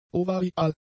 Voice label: "ovarial", German; adjectives